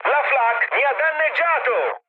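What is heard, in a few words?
Italian damaged aa mood high, speech; War Thunder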